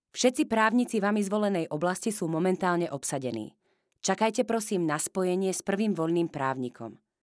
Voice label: slovak female

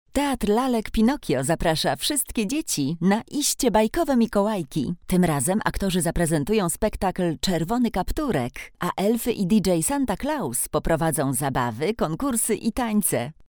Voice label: polish female

